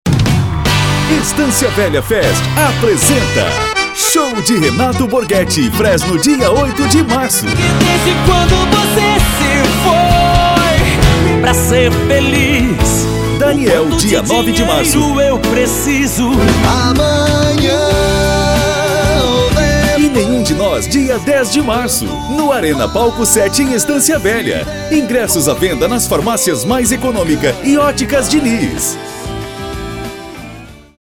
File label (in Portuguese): Spot; Estância Velha Fest